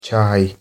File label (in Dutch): "çaý", Turkmeens; thee-in-andere-talen